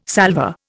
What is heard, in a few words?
"salvor", Indian